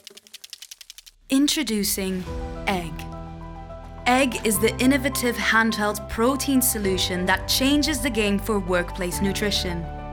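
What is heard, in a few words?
Corporate parody generic EU accent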